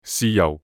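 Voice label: "豉油" Cantonese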